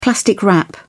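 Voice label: "plastic wrap", British English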